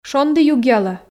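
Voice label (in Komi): "шондi югъялӧ", Komi, female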